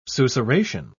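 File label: "susurration", British English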